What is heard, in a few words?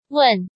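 "Wèn", Chinese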